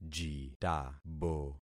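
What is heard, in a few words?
"jitabo", concatenated syllables; Affixing experiment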